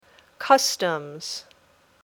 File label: "customs", English